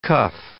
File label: "cuff", english